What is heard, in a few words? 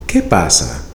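"QUÉ PASA", Spanish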